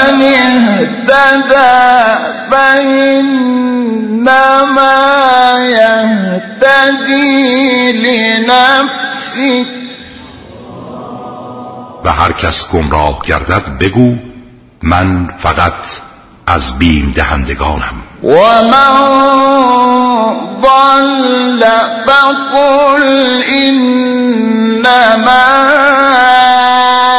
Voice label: تلاوت زیبای از آیه 91 تا 92 سوره نمل ترجمه گویا; شحات انور

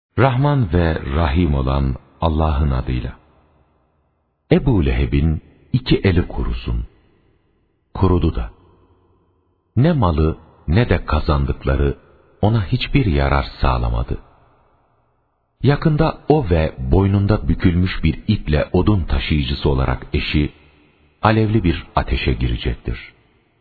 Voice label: ترجمه گویای ترکی استانبولی; سوره مسد

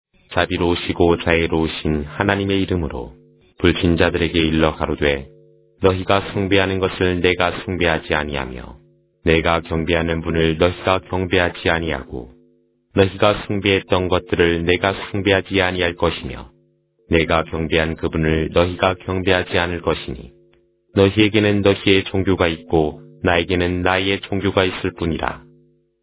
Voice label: ترجمه گویای قرآن کریم به زبان کره ای; سوره کافرون

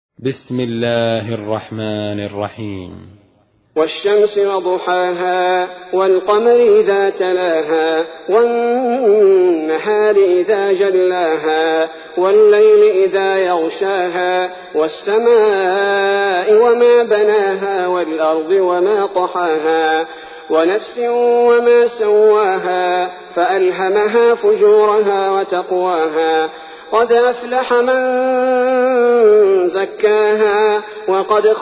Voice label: ترتیل سوره شمس; الثبیتی

